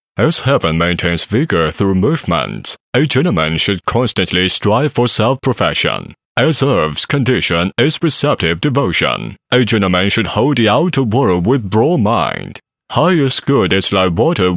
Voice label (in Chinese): 英男; 华晨光电子科技